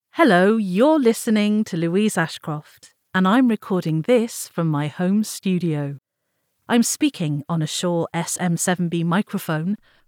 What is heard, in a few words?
Home Studio Test